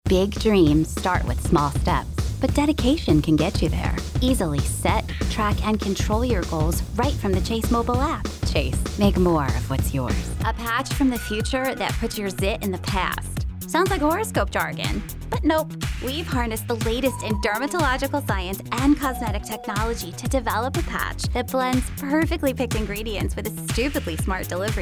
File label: Commercial